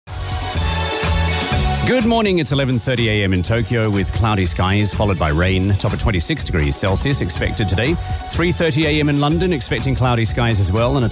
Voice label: radio